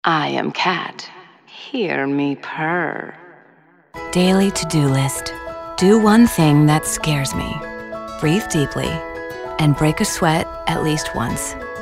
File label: commercial, voice